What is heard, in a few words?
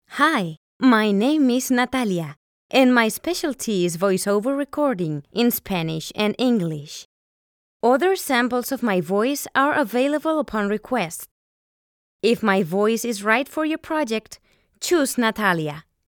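Spanish Spain English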